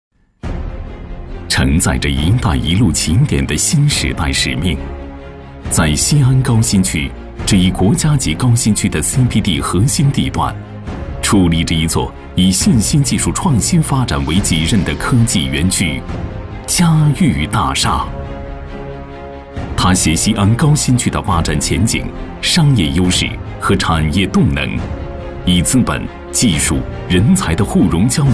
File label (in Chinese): 大气积极; 嘉昱大厦